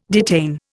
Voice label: "detain", Indian

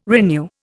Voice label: "renew", Indian

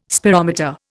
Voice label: "spirometer", Indian

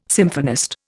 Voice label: "symphonist", Indian

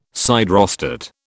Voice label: "siderostat", British

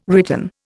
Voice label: "written", Indian

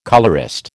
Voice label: "colourist", American

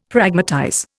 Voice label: "Pragmatize", Indian; Dictionary

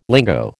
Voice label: "lingo", American

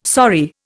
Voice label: "sorry", Indian

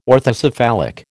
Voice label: "orthocephalic", American

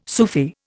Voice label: "Sufi", Indian

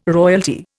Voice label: "royalty", Indian

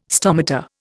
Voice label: "stomata", Indian

